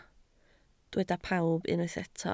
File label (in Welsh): "pawb", Welsh